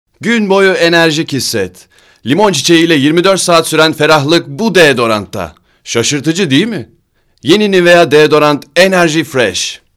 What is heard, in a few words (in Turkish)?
REKLAM